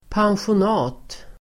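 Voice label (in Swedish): "pensionat", Swedish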